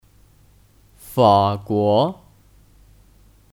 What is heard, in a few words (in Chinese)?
"法国", Fǎguó; Kata benda; Perancis